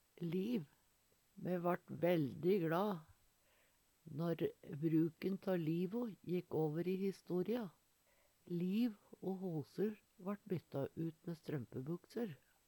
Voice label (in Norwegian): liv ve, Numedalsmål